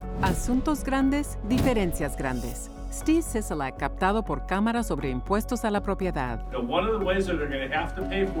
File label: Property Taxes Spanish; RGA NV